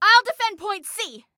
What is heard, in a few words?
defend, Female; Female 02